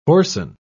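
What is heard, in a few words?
"hoarsen", British English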